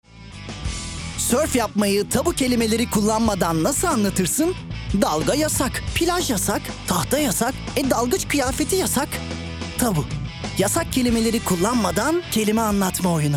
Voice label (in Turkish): neseli esprili, seslendirme